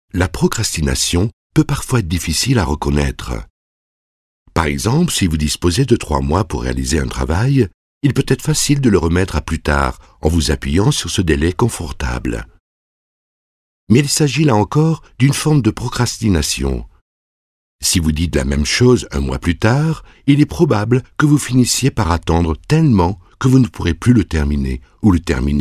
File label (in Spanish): Masculino